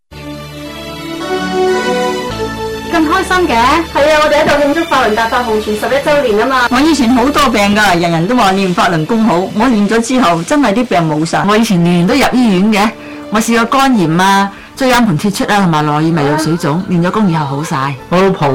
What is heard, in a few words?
ad cantonese; radio